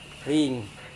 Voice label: "rin", Serewen